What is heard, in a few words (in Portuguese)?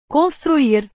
"construir", portugues; portugues/verbos